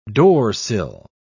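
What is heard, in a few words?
"doorsill", English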